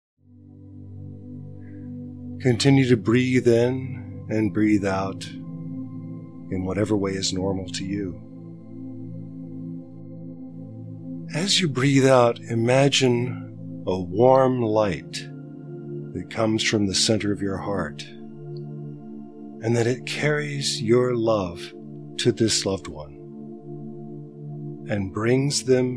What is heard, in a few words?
compassion meditation segment